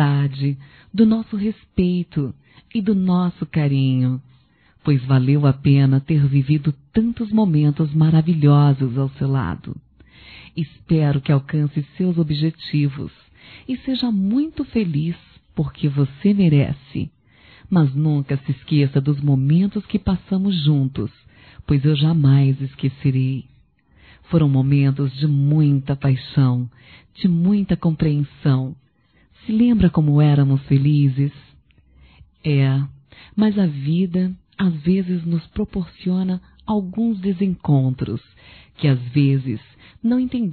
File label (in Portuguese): Saudade de Ex Feminino, Telemensagens; Saudades